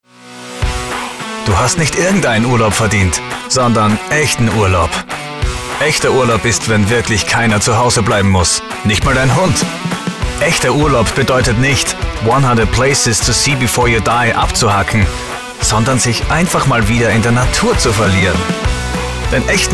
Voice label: german radio imaging

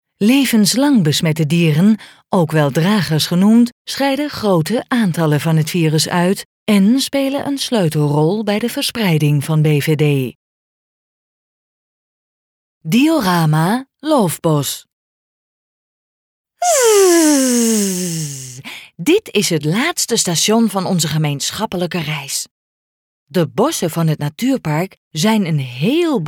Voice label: dutch e-learning

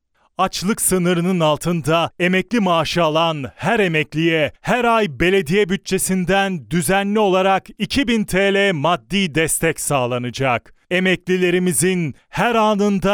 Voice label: turkish political ads

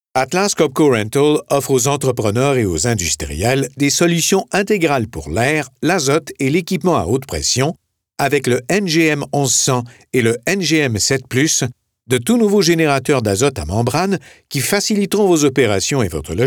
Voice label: french canadian corporate videos

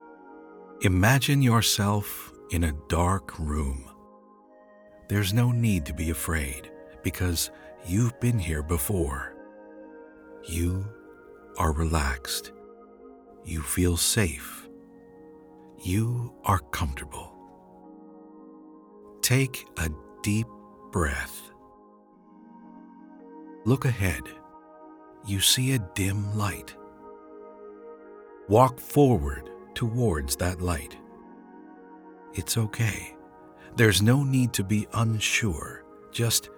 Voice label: english canadian

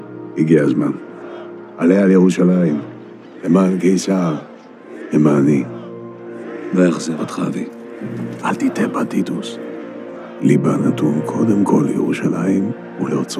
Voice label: hebrew animation

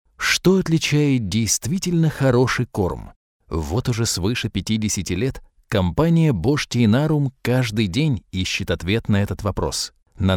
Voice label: russian corporate videos

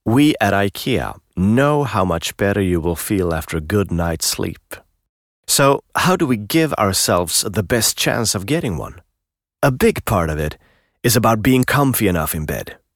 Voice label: english commercial

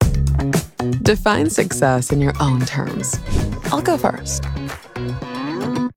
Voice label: english international promos

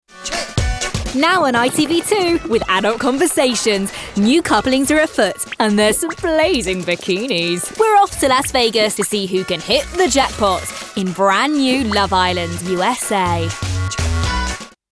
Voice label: English British promos

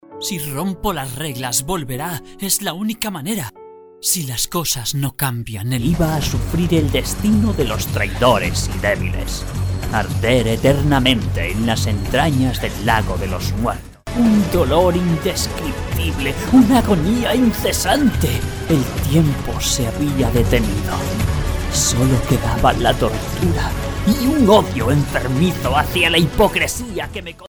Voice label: spanish video games

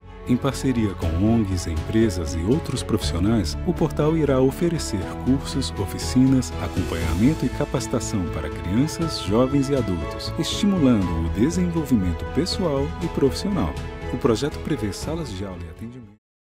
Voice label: portuguese brazilian corporate videos

